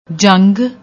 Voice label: "jang", Punjabi

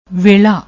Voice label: "waila", Punjabi; ਵੇਲ਼ਾ; ویلا; noun, masculine; time; occasion; opportunity

